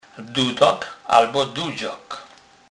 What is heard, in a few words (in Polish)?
"dudak", kurpiow